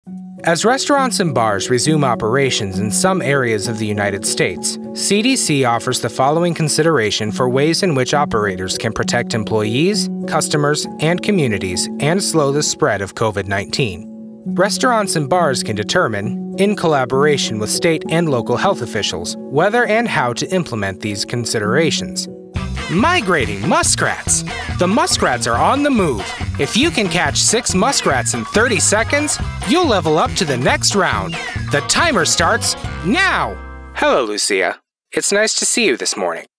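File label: eLearning, male voice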